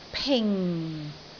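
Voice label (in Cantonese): "ping4", Cantonese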